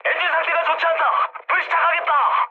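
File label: Korean damaged engine mood high; speech; War Thunder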